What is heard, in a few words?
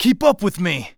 Cover, Human Male; zps; HumanMale-01